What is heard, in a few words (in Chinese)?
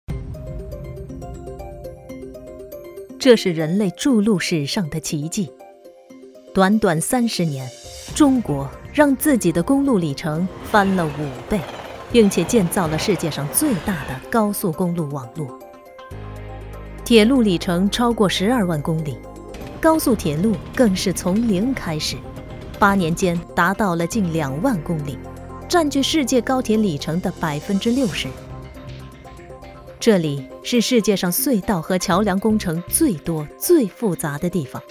专题片配音新闻